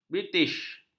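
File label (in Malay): "british", Malay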